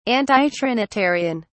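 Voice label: "antitrinitarian", British English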